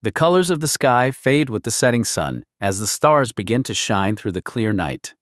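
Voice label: US English; iOS Sounds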